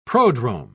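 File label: "prodrome", English, British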